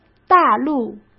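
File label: "大陆", Mandarin Chinese; chinese-flashcards; hsk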